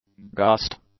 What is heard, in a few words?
"gust", English; nouns